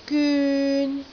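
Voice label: "gyun3", Cantonese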